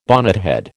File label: "bonnethead", American